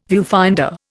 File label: "viewfinder", Indian